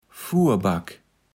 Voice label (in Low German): "Furback", Platt